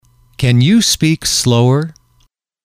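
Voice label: "can you speak slower", English, male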